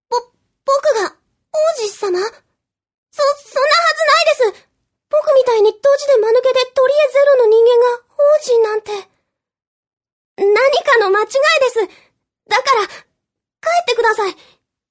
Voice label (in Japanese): f yowakina otokonoko; 弱気な男の子